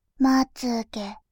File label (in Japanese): まつ毛, バイノーラル右